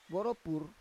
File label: "voropur", Roon